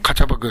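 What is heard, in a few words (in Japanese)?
"kachabagu", 南山形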